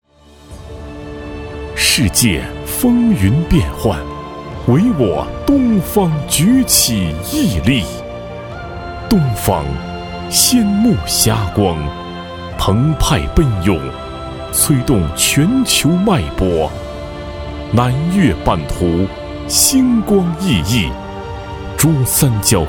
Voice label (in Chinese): 男, 专题, 企业专题; 大中集团